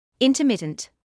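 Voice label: "intermittent" English, British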